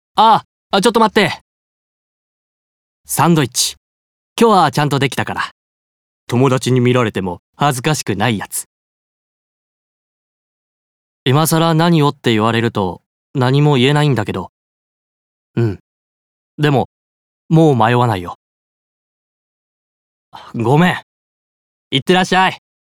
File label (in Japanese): シングルファザー30代